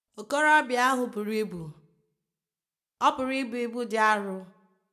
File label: female Igbo Room; Speech Quality Examples